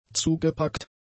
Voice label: "zugepackt", German; adjectives